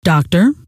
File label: doctor, scientist female